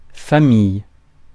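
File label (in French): "famille", French; Mots francais phonemes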